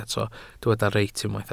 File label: "reit", Welsh